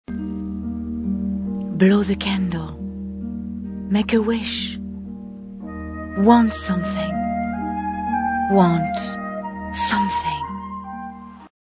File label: Tender, Birthday wish